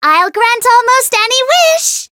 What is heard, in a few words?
Voice chant; myungwha